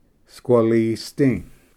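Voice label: "squalisdi", Cherokee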